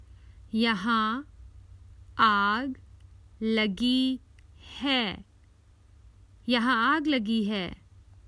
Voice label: Common Hindi Phrases for travel There's a fire

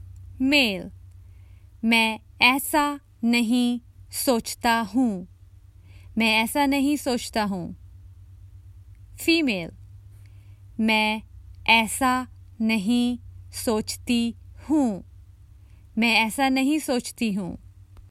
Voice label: I dont think so, Common Hindi Phrases for travel

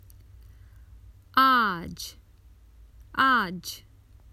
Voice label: Common Hindi Phrases for travel Today

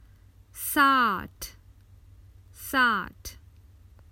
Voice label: Common Hindi Phrases for travel Sixty

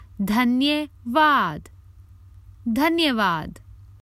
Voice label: Thank you, Common Hindi Phrases for travel

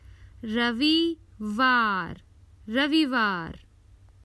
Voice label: Common Hindi Phrases for travel Sunday

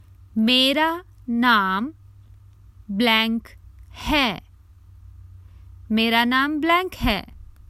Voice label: My name is, Common Hindi Phrases for travel

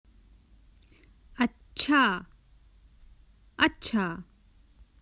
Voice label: Good, Common Hindi Phrases; Common Hindi Phrases for travel

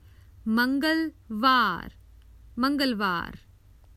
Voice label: Common Hindi Phrases for travel Tuesday

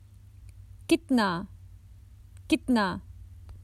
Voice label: How much, Common Hindi Phrases for travel

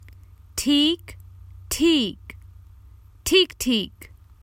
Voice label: Common Hindi Phrases for travel Not bad

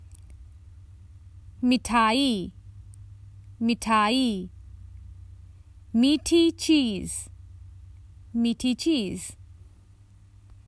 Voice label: Dessert, Common Hindi Phrases for travel

